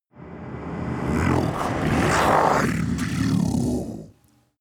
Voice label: demon dark voice reverse look behind you